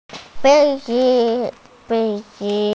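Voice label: "bi2 zi5", Mandarin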